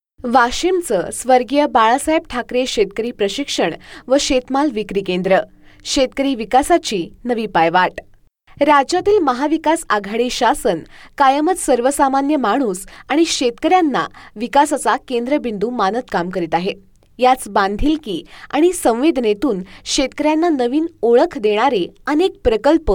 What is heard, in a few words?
Marathi, Female